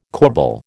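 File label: "corbel", American English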